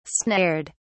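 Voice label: "snared", British English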